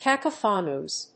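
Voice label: "cacophonous", English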